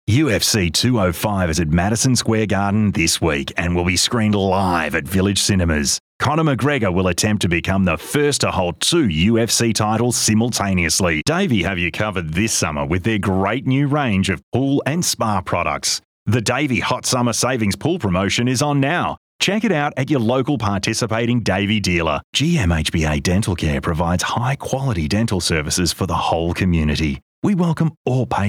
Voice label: Soft Sell